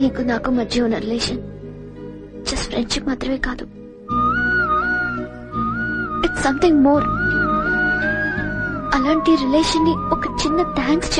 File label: emotional dialogue, telugu; bhadra